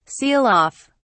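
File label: "seal off", English, British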